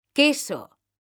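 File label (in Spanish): "queso", female; mekanta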